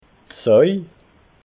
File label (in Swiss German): "soj", alsace